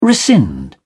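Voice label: "rescind", British English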